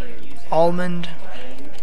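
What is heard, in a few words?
"almond", USA, male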